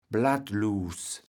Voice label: "Blattluus", kaevels platt

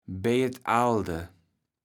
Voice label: "bej talde", kaevels platt

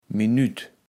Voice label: "Minütt", Platt

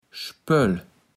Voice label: "spöl", Platt

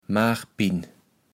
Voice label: "Magpin", kaevels platt

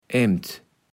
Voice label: "Emt", kaevels platt

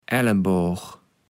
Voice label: "Ellenboag", kaevels platt

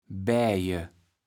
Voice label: "baeje", kaevels platt

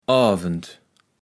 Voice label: "Oawend", Platt

